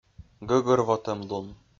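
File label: "гӧгӧрвотӧмлун", Komi-Zyrian, male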